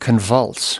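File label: "convulse", US English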